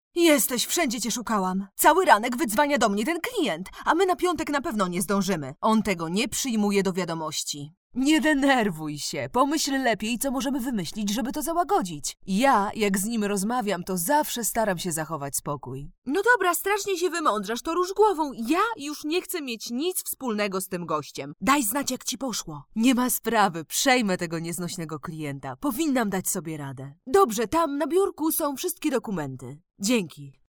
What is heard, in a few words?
F DUBBING